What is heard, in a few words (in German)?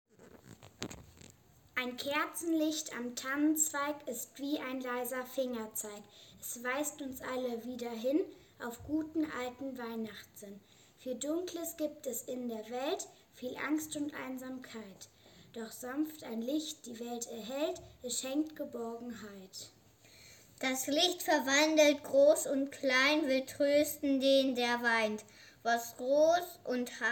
Gedicht Advent